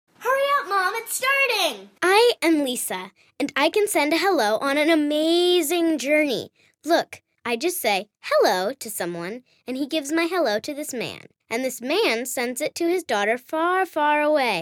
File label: US Girl